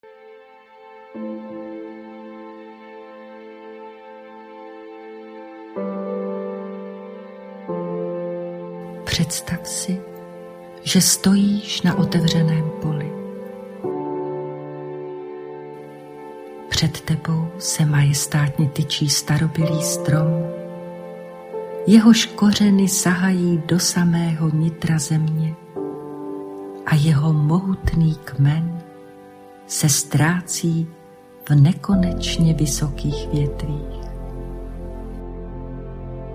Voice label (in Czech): Meditace stromu ukazka